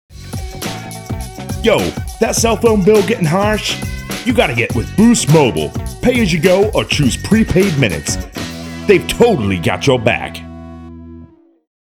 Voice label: voice over; Boost Mobile